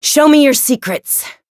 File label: Voice variable chant; sherinu